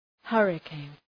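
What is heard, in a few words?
"hurricane", English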